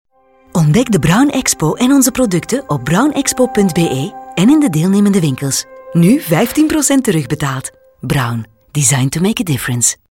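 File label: Belgian neutral, kvinne, flamsk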